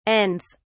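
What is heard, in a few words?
"ends", British English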